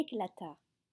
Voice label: "éclata", French

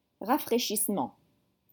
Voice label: "rafraîchissement", French

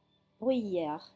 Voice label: "bruyère", French

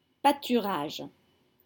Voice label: "pâturage", French

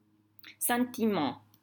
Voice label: "Scintillements", French

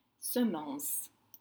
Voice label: "semence", French

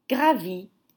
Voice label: "Gravi", French

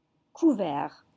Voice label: "couvert", French

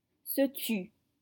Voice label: "se tut", French

